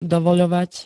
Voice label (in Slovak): "dovoľovať", slovenské